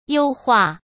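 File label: "优化", Mandarin Chinese; hsk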